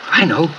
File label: i know brit bloke, expletives; Voice; i don't know